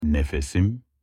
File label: my breath in turkish